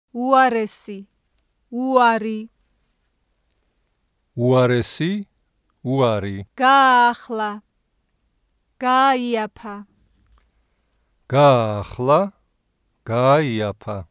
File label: vowel sequence, kartuli; vowel sounds